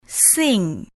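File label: "sing", Malay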